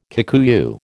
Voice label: "Kikuyu", American